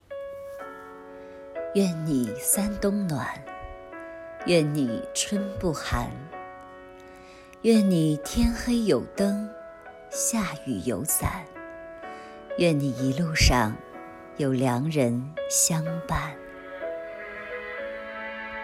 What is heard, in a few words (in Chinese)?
抒情